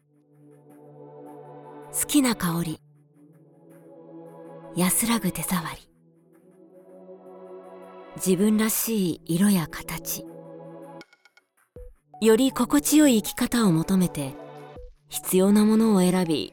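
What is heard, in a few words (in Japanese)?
揺るぎない オーガニック商品, ナレーション; VOICE SAMPLE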